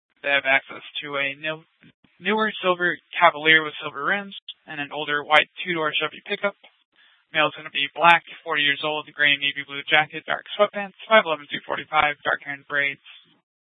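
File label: police dispatch radio, scanner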